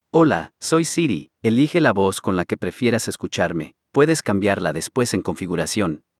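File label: Mexican Spanish; iOS 26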